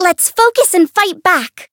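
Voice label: Voice encounter fear; saki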